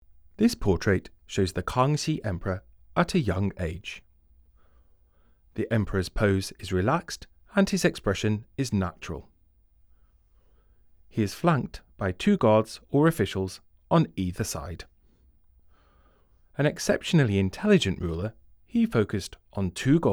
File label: English, audio guide; The Kangxi Emperor in Military Attire; Court painters; Qing dynasty; versailles